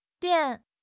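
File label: "dian3", Mandarin Chinese; HSK1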